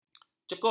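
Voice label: "cekup", Malay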